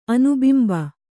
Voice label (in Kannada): "ಅನುಬಿಂಬ", kannada